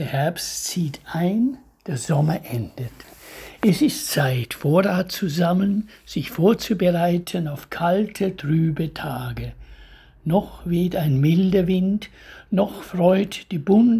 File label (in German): Blätter fallen, Lyrik, Gedichte eigene Lesung